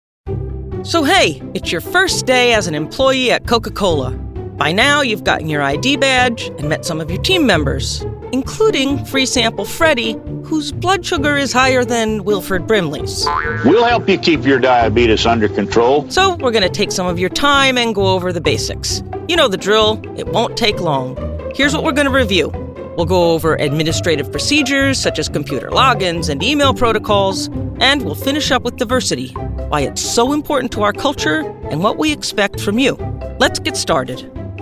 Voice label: E-Learning

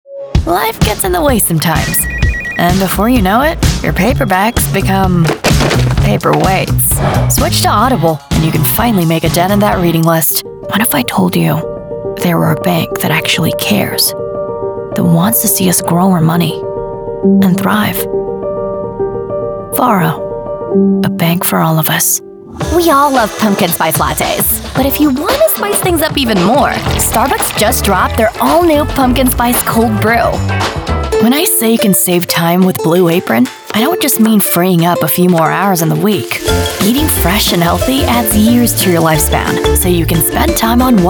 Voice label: Commercial, en US

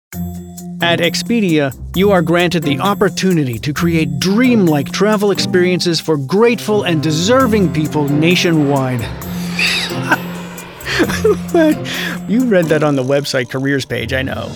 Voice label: E-Learning

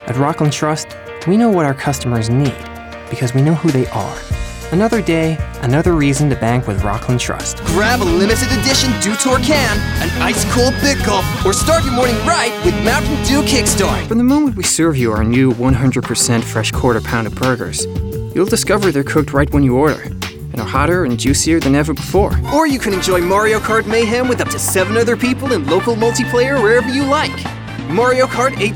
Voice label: US Commercial

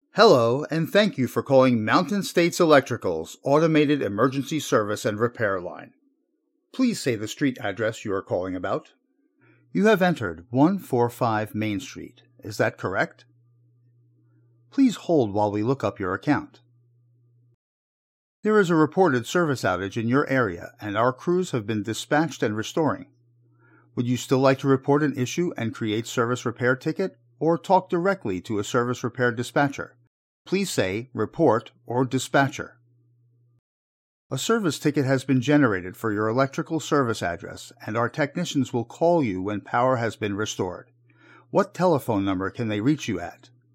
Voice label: Voice Actor Phone System

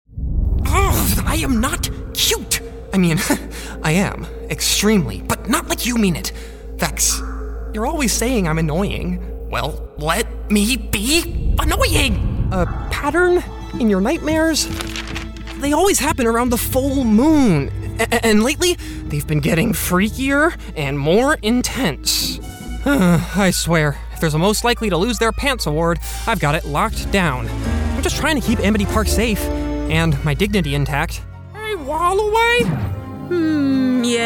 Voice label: Animation

